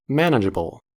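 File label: "manageable", US English; pronunciations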